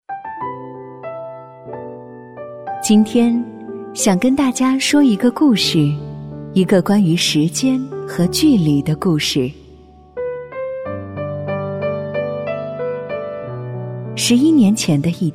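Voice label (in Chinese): 女国大音; 个性短片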